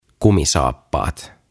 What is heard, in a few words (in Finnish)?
"Kumpisaappaat" Boots; OTAVA KONSERNI